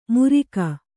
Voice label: "ಮುರಿಕ", Kannada

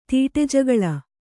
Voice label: "ತೀಟೆಜಗಳ", kannada

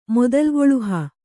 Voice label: "ಮೊದಲ್ಗೊಳುಹ", Kannada; modalgoḷuha; noun; commencement; the beginning

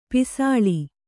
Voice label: "ಪಸಲು", Kannada

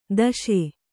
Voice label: "ದೋಷಿ", Kannada

